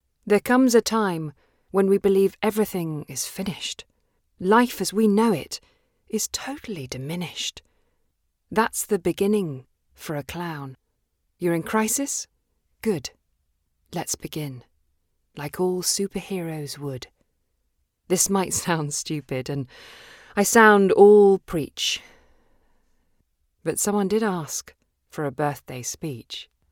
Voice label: Poetic Empathetic Education Show